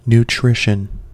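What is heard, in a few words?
"nutrition", US English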